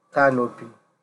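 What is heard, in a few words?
"canopy", Nigerian Pidgin, male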